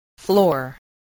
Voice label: "floor", spelling